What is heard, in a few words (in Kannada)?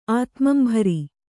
"ಆತ್ಮಂಭರಿ", Kannada